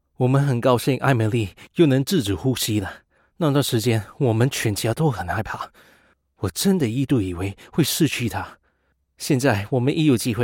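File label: Narration, Chinese; GoLocalise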